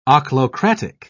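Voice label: "ochlocratic", British English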